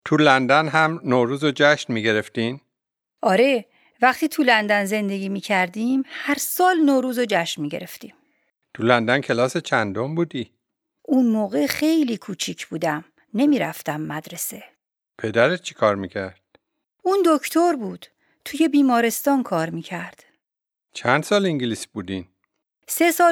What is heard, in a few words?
Dialogue lesson Farsi